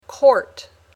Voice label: "court", English

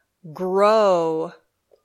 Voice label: "grow", English

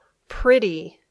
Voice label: "pretty", English

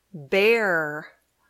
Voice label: "bear", English

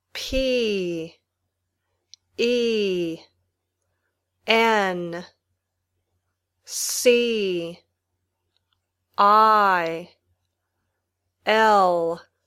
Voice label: Spelling, Basic English

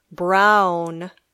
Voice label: "brown", English

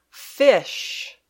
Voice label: "fish", English

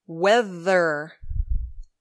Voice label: "weather", English

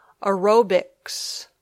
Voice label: "aerobics", English

